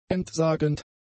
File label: "entsagend", German; adjectives